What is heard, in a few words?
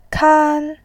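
"kan1", Mandarin Chinese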